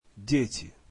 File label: russian children